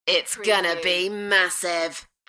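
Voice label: British female Its gonna be massive; beanstalk audio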